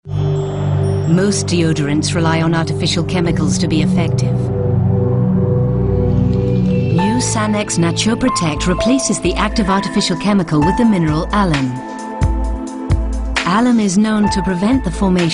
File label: TV COMMERCIAL, ENGLISH UK FEMALE